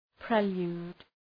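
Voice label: "prelude", English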